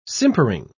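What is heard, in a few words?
"simpering", British English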